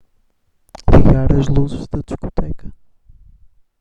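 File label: Portuguese, Portugal; speech massive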